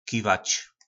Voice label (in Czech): "Kivač", Slovník nářečí; Kývat,Mávat; Zakivej mu.